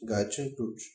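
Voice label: wrench description Bulgarian; tuxpaint-stamps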